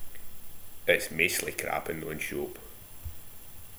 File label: "maistly", slang; Ah huvnae seen ye aboot